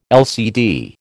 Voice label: "LCD", American